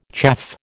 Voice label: "chaff", American